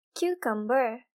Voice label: Cucumber, girl

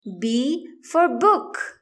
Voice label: "b book", english; grade1; identifying letters i

